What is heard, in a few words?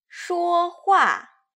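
"shuohua", Mandarin pinyin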